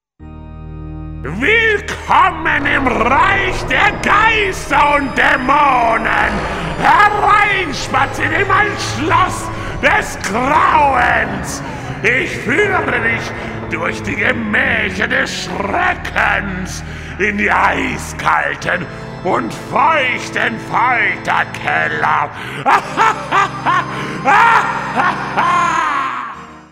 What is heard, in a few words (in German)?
Grusel Diener